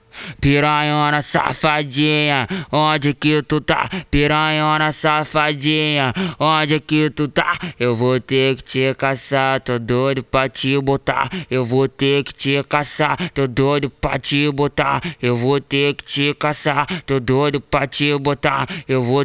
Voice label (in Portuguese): acapella